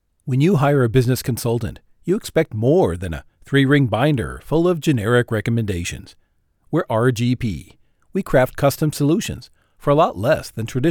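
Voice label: US English, male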